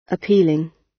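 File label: "appealing", British; The arts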